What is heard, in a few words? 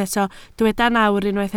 "nawr", Welsh